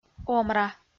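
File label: "омра" Komi-Zyrian, female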